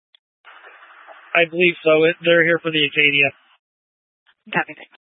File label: Police Dispatch, scanner audio recording